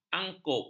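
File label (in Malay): "angkup", Malay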